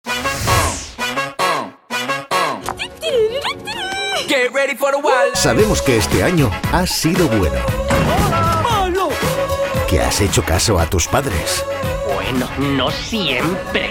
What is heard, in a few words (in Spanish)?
PROMO NAVIDAD; VIDEOCLUB INFANTIL 2016